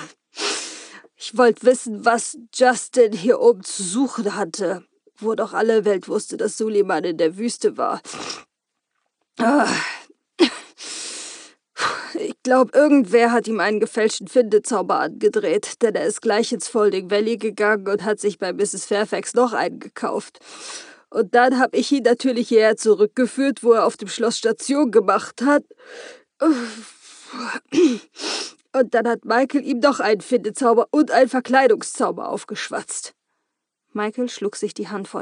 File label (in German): Hoerbuch Kranker Howl; Hörbücher